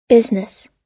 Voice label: "business" US

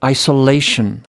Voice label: "isolation", us

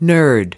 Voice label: "nerd", us

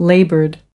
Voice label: "laboured", us

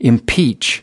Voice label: "impeach", us

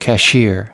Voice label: "cashier", us

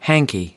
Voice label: "hanky", us

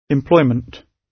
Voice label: "employment", gb

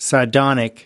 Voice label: "sardonic", us

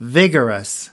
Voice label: "vigorous", us

